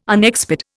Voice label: "unexpired", Indian